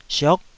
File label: "siok9", taiwanese